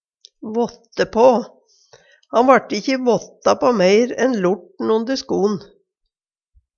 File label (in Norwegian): våtte på, Numedalsmål; Han vart ikkje meir våtta på enn lorten onde sko'n.; ta omsyn te